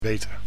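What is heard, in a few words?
"beter", Dutch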